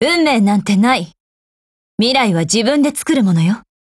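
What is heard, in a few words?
Japanese; M1887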